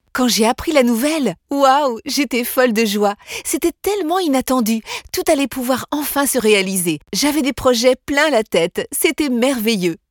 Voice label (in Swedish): Glad fransyska